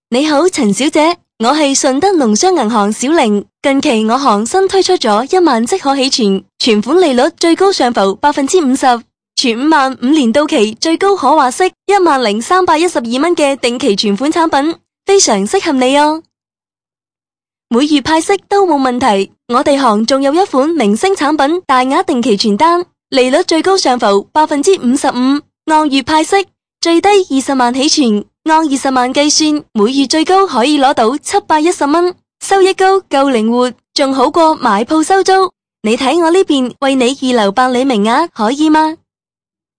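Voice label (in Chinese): 女粤语 电话术语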